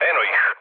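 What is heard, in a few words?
Serbian enemy detected mood low; War Thunder; speech srb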